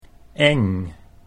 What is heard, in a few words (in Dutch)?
"eng", Dutch